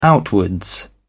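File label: "outwards", English, British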